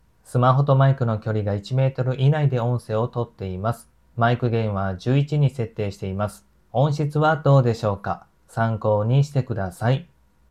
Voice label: maono wireless microphone